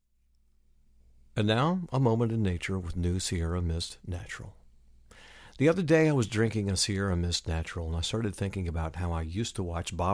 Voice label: casual voice-over; Sierra Mist